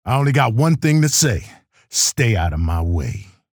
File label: menu chatter; keel